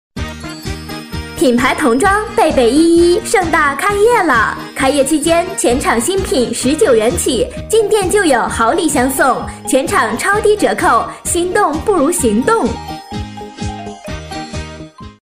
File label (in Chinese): 广告叫卖; 品牌童装